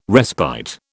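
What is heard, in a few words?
"respite", British English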